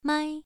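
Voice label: "mai", tone 1, pronunciation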